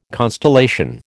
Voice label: "constellation", American